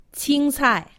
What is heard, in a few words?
"qingcai", Chinese; Survival Chinese